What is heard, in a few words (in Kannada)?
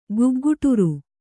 "ಗುಗ್ಗುಟುರು", Kannada